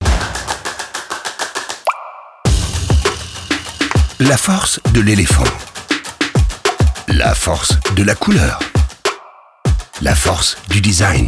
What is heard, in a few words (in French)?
FR VO